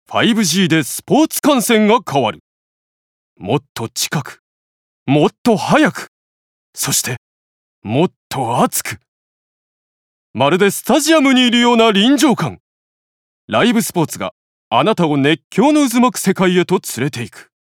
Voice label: スポーツチャンネルCM

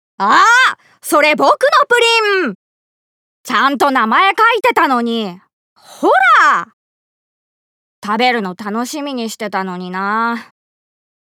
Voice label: 少年

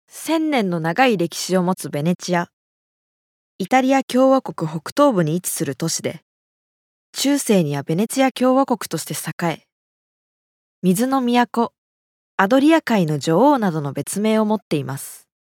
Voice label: 紀行ナレ

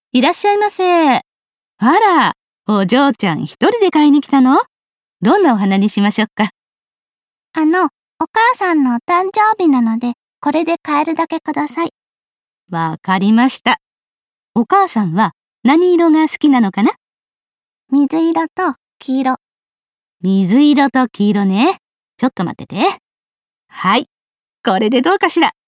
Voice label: 店員さんと女の子

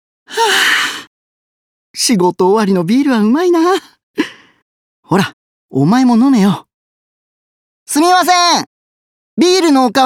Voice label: 先輩社員、後輩をなだめる

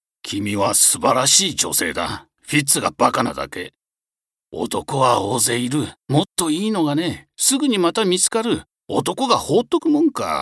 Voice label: 慰める

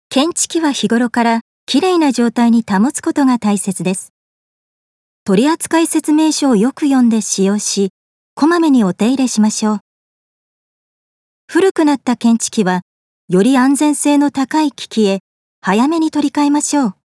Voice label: 説明ナレ

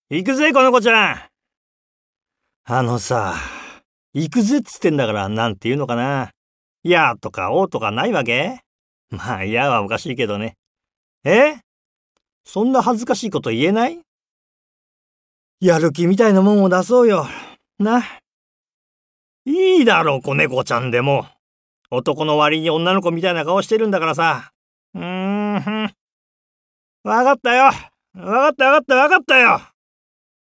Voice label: 軽い男

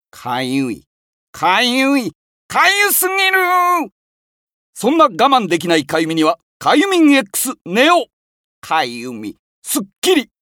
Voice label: 虫刺され薬CM

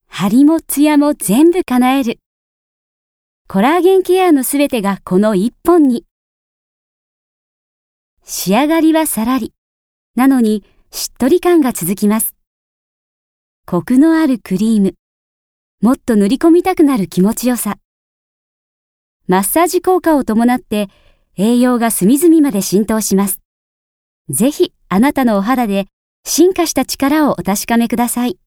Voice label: コスメCM, 伊予弁

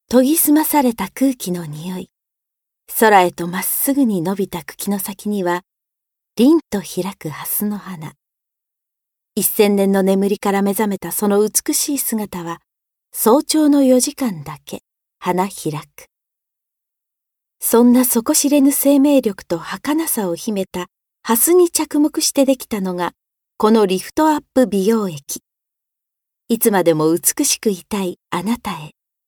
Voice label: コスメCM

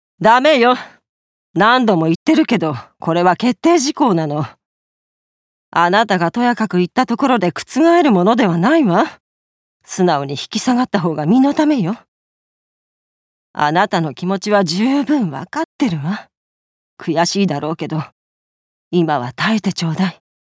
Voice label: 部下をなだめる上司

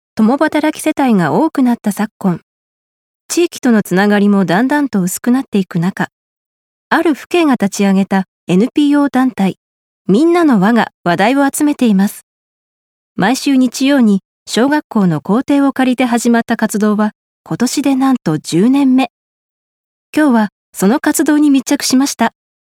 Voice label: 密着番組ナレ

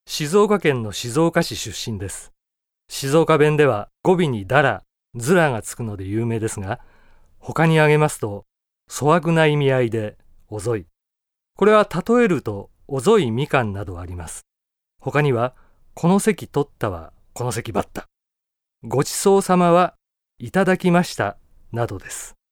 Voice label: モノローグ, 静岡弁

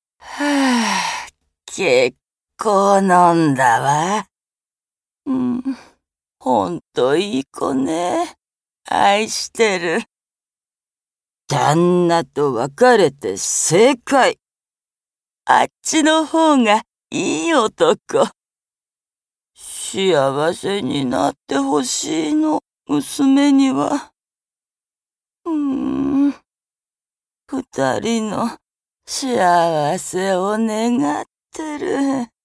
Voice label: 老人

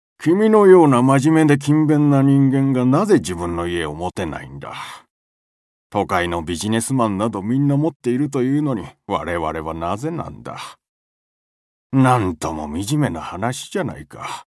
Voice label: 老人

